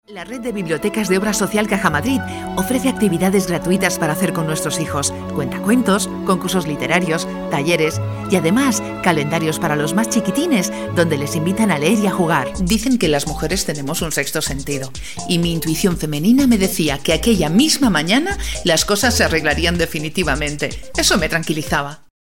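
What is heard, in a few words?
Female Spanish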